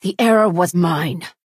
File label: female oops; Redguard